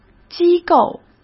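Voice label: "机构", Mandarin Chinese; chinese flashcards; hsk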